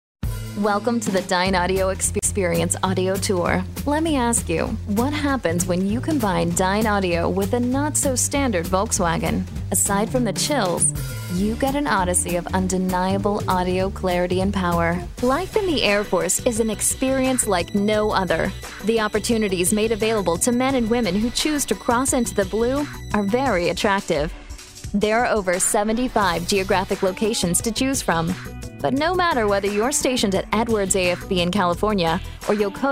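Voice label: narration, voiceover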